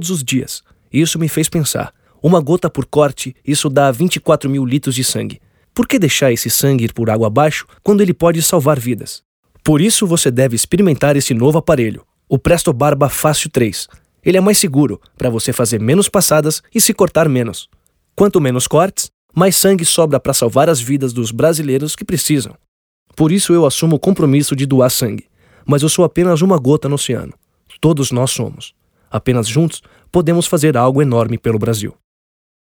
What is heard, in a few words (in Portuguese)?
voz pouco empostada conversada sem trilha; Gillete